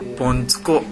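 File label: "bontuko", Minamiyamagata dialect Japanese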